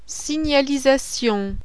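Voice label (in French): "SIGNALISATION ROUTIERE", French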